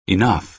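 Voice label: "enough", American English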